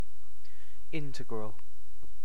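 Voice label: "integral", British English; pronunciations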